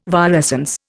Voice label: "virescence", Indian

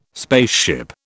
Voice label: "spaceship", British

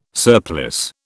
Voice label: "surplice", British

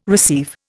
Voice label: "receive", Indian

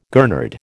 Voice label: "gurnard", American

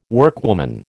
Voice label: "workwoman", American

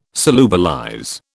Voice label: "solubilize", British

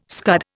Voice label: "scud", Indian

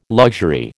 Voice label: "luxury", American

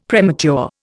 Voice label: "premature", Indian; Dictionary

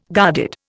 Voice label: "guarded", Indian

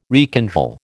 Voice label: "rekindle", American

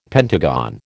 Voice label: "pentagon", American

